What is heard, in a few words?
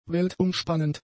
"weltumspannend", German; adjectives